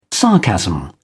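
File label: "sarcasm", British English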